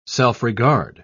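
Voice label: "self-regard", British English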